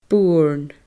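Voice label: "burn", Scottish Gaelic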